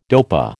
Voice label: "dopa", American